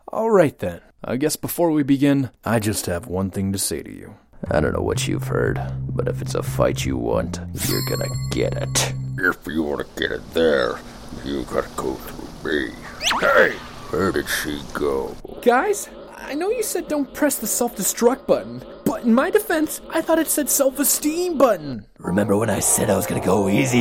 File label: Character